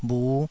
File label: "bhu5", taiwanese Syllables